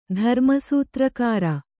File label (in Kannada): "ಧರ್ಮಸೂತ್ರಕಾರ", Kannada